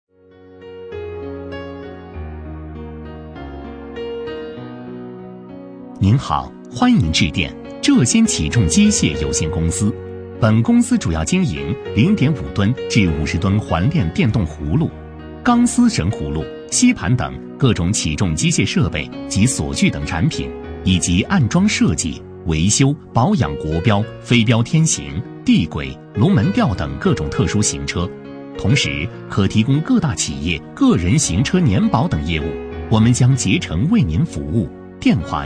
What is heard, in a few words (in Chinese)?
男 彩铃